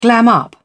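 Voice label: "glam", English, British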